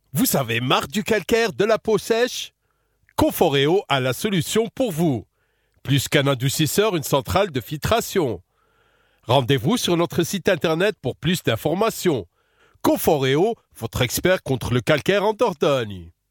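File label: African French, Commercial; GoLocalise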